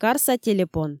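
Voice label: "карса телепон", Komi, female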